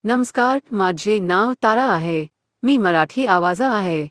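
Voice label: Marathi, India; iOS Sounds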